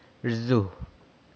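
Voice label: "rzu", San Lucas Quiaviní Zapotec; flies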